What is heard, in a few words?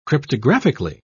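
"cryptographically", British English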